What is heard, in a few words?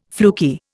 "fluky", Indian